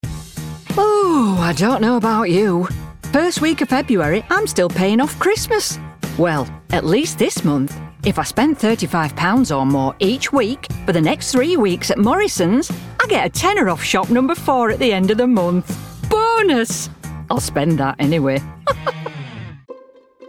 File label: Commercial